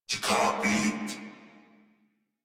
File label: Patrol Crewmen, Battle Barks; Dialog; Warframe; Corpus Crewmen; Crewman C